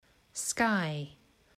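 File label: "sky", English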